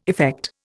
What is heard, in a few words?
"effect", Indian